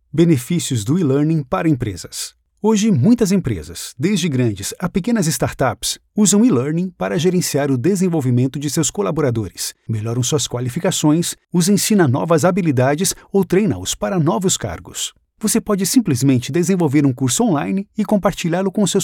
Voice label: portuguese brazilian e-learning, brazilian portuguese voice actors